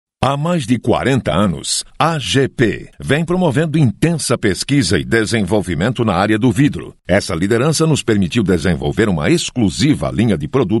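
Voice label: Portuguese male voice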